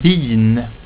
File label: "vin", swedish